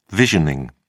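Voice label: "visioning", English, British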